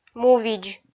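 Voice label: "му-видз", Komi, female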